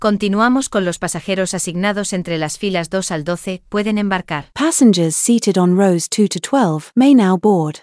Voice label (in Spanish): Embarque, Announcements; CanaryFly; Female